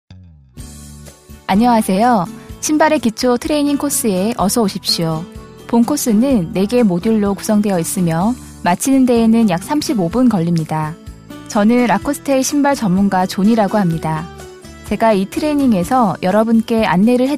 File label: 韩语 Korean Female Native